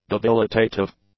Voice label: "debilitative", english; adjectives